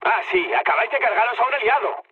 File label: player killed ally, mood low, speech; War Thunder